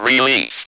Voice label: ROB VOX; E-Lab; X Static Goldmine 1